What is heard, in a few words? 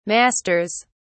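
"masters", British English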